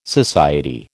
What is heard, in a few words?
"society", American